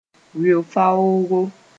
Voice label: "weo-faoogo", Mooré

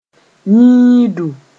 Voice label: "yĩidu", Mooré

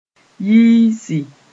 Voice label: "yiisi", Mooré

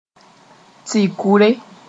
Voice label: "tɩ-kʋre", Moore; antivenin

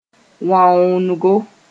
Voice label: "wãoongo", Mooré

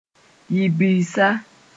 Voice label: "yibirsa", mos-en; nervous breakdown

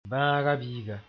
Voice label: "bãaga-biiga", Mooré; germ

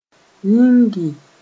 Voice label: "yĩngi", Mooré

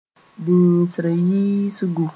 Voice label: "bĩisreyiisgu", Mooré

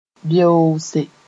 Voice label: "beoose", Mooré

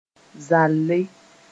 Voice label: "zalle", moore

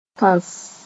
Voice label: "tõss", moore

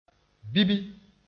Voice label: "bibi", moore

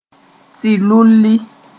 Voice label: "tɩ-lulli", Moore

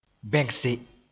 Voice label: "bẽgse", Mooré

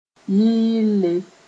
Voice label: "yɩɩle", Mooré

